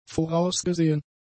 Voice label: "vorausgesehen", German; adjectives